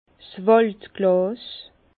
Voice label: "waldglas", Bas Rhin; vin; verre teinté

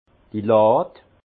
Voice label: "lad", alsace; vin

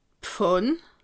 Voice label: "pfann", alsace

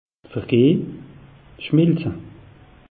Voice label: "vergehn", alsace; meteo

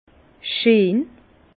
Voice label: "scheen", Bas Rhin; vin; beau

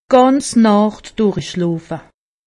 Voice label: "nacht", alsace; medecine

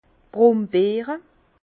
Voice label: "brombeere", Bas Rhin

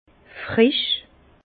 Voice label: "frisch", Bas Rhin; frais; vin

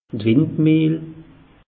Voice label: "windmehl", alsace; meteo

